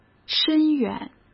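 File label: "深远", Mandarin Chinese; hsk; chinese-flashcards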